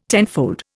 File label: "tenfold", Indian